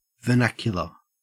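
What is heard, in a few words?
"vernacular", Australian English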